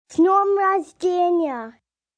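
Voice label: Birthday Russian Kid, child, girl, foreign, Russian; Occasion, event; Holiday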